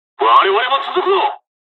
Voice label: dialogs japanese; hon jpn second wave; War Thunder